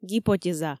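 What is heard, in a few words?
"гипотеза", Komi, female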